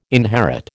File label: "inherit", American